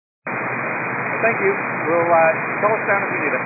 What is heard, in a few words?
122 725MHz, scanner radio